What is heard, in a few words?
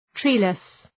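"treeless", English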